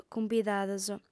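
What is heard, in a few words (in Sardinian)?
"cumbidados", female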